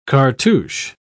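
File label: "cartouch", English, British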